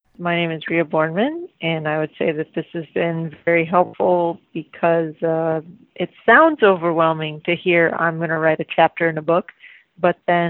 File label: Clarity Call Testimonial